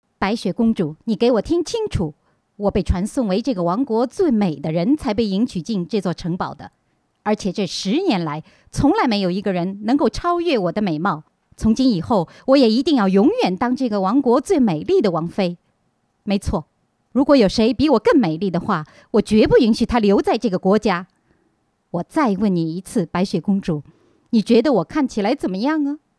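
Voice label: character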